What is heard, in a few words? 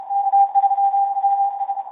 ECHO